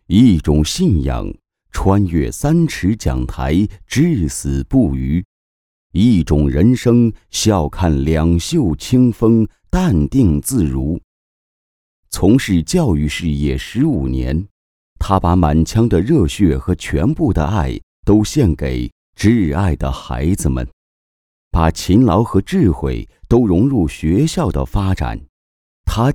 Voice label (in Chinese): 教师人物专题配音; 以爱育人; 以情优教; 某小学